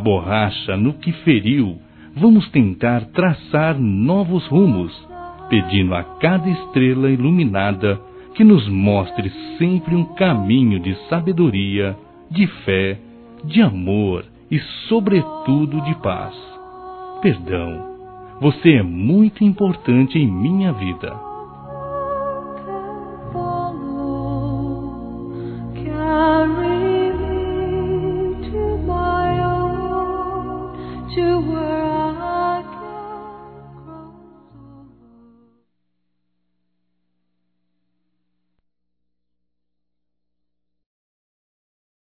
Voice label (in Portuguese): Desculpas Místicas Masc; Telemensagens 2017